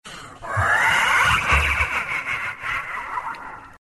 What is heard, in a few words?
kill, zh; Dota 2